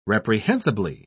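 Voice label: "reprehensibly", British English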